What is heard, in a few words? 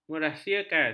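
"merahsiakan", Malay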